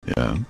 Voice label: yeah deep